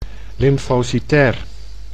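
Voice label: "lymfocytair", Dutch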